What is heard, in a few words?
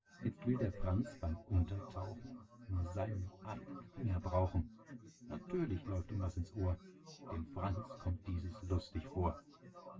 male synth conv sim; SiSEC10; underdetermined